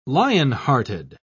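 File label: "lionhearted", British English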